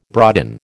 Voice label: "broaden", American